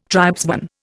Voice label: "tribesman", Indian